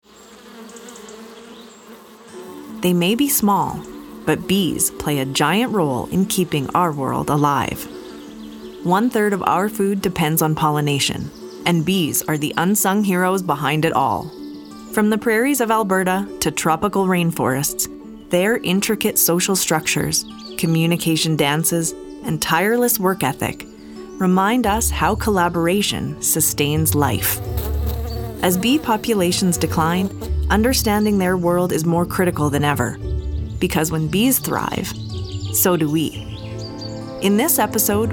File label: Narration